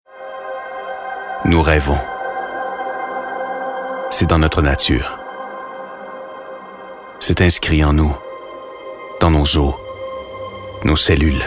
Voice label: french canadian documentaries

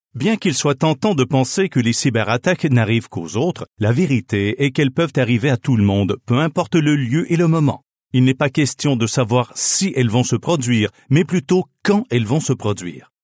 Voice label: french canadian e-learning

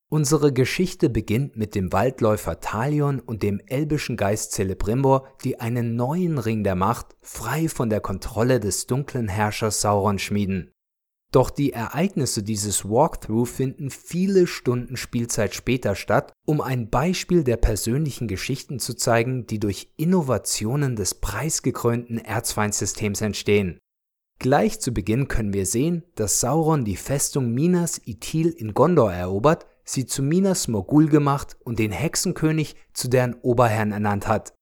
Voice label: german narration

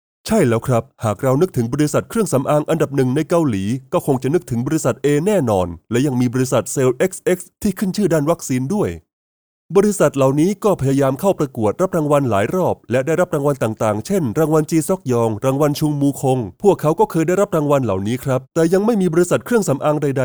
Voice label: thai tv shows

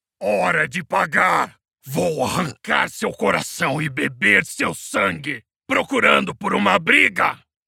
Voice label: portuguese brazilian video games

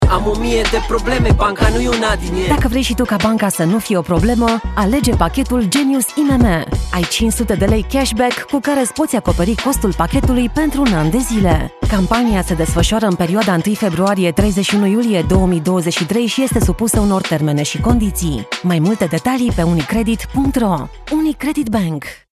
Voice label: romanian commercial; native Romanian voice artist

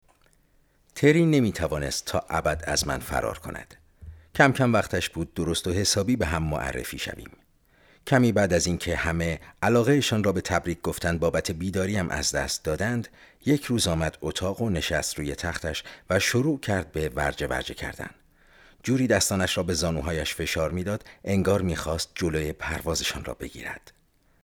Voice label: farsi audiobooks

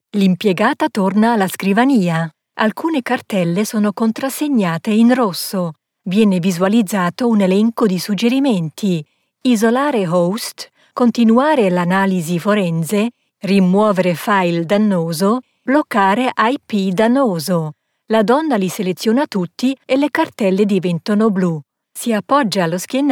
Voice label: italian e learning

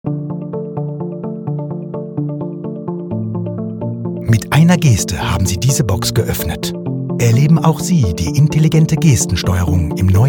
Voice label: german automotive

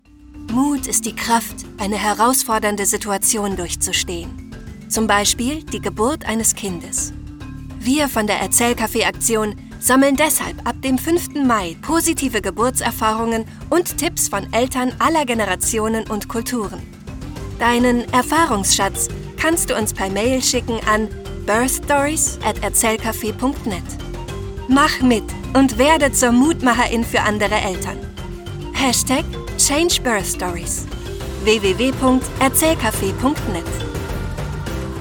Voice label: german others

